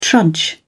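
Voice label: "trudge", British English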